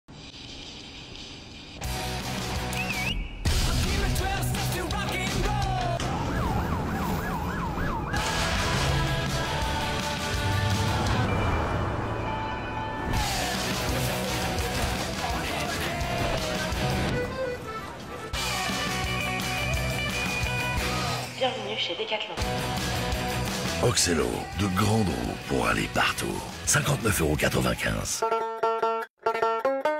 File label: Spot TV; Decathlon Oxelo